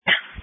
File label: Sheriff Dispatch